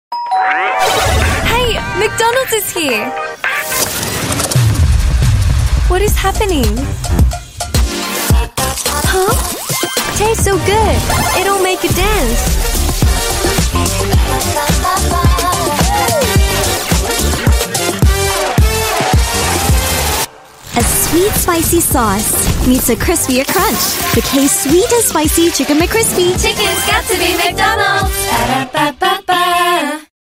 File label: Asian English; McDonalds K-Sweet